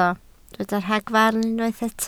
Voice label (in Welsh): "ragfarn", Welsh